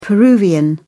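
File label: "peruvian", British English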